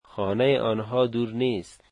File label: their house is not far, dari